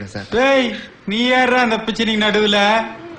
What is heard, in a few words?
Tamil Comedy